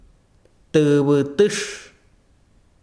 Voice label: besiro cenizas, chiquitano